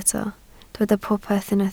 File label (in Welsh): "popeth" Welsh